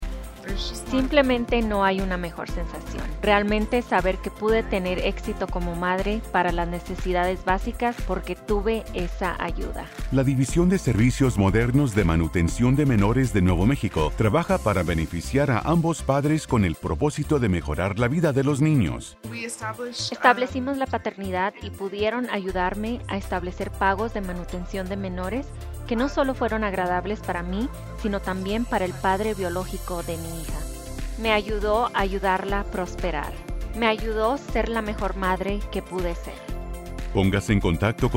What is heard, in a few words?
Testimonial SPANISH; Modern Child Support